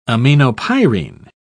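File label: "aminopyrine", English, British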